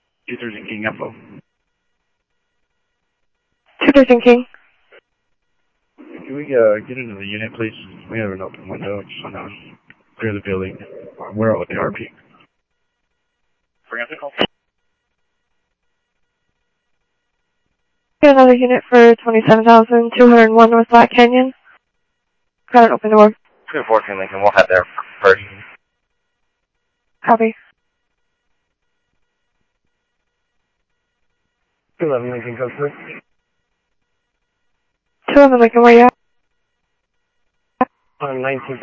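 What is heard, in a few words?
police department, scanner audio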